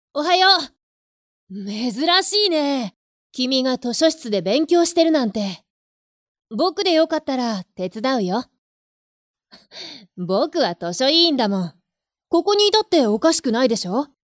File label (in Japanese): 図書委員少年